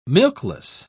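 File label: "milkless", British English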